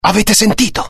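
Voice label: curious, Italian; mohaa; dialogue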